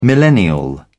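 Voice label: "millennial", British English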